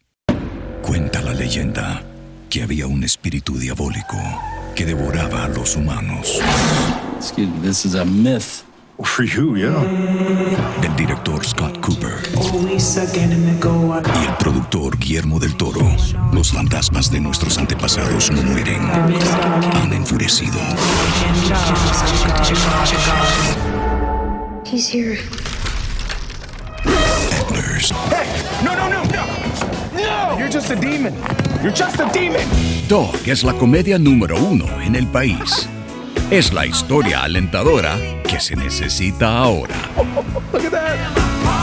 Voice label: Movie Trailer-Spanish; Movie Trailer Demo-Spanish